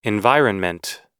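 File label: "environment", American English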